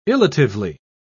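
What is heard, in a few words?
"illatively", British English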